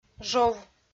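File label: "жов", Komi, female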